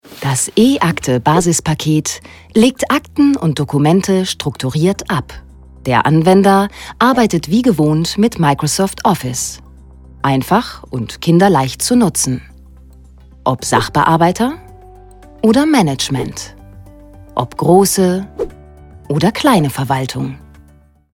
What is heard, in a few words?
e-learning